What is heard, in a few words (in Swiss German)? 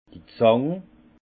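"zang", Haut Rhin; vin; pince plate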